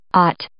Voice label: "aught", English